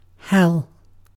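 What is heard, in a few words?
"hell", British English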